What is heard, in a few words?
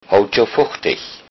good health, low saxon